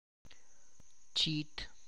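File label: tlacochahuaya, Zapotec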